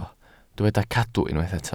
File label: "cadw", Welsh